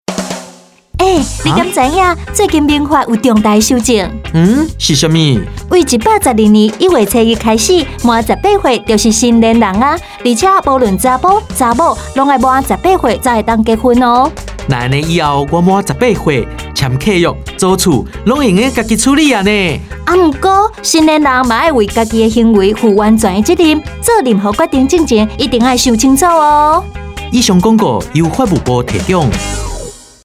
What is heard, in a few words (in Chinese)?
民法修正調降成年年齡 臺語; 法務部